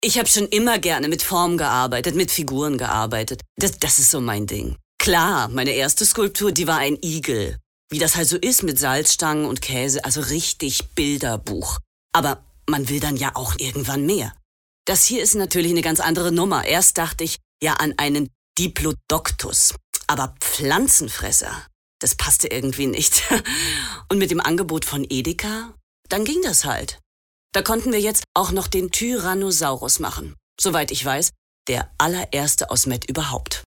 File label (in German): Werbung Kuenstlertyp; Edeka